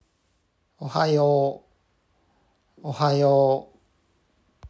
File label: Ohayo Japanese Pronunciation